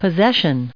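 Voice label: "possessions", us English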